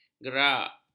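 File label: "gerak", Malay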